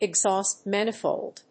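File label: "exhaust manifold", English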